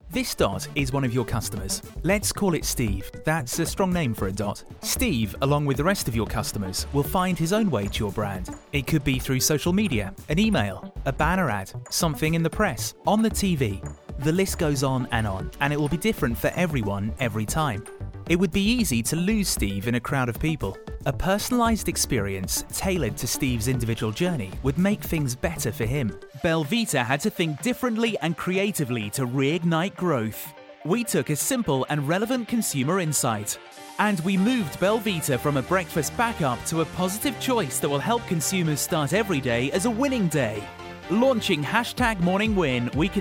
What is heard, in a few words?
explainer